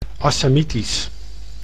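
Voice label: "Assamitisch", Dutch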